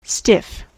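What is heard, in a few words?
"stiff", US English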